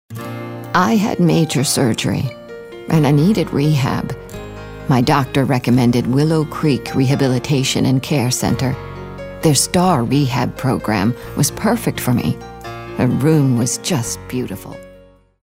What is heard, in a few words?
grandmother